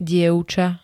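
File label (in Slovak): "dievča", Slovak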